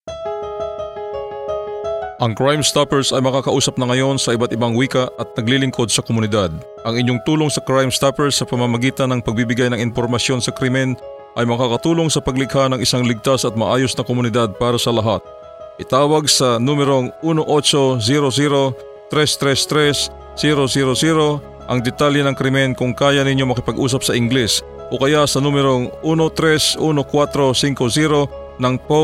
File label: Filipino; Crime Stoppers